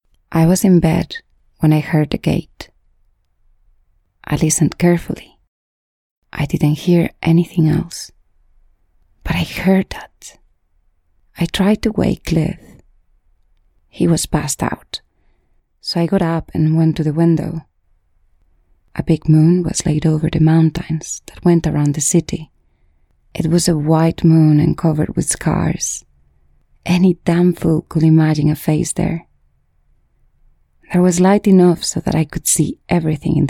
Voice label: Home Studio Read